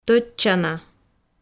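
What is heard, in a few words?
"тӧдчана", Komi, female; audio dict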